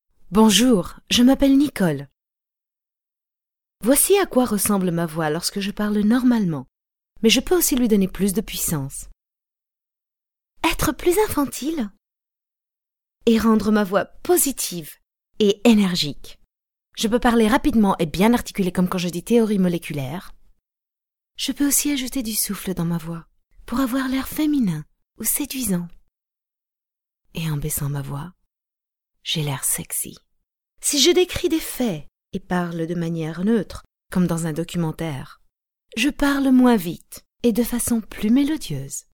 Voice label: french generic